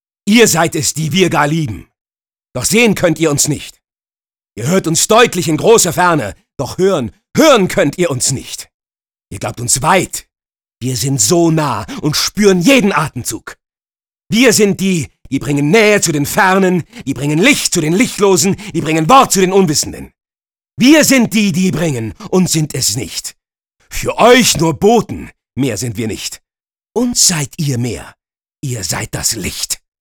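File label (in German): aggressiv, Sprecher, Männer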